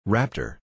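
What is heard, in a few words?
"Raptor", English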